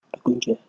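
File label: "egunje", Nigerian Pidgin, male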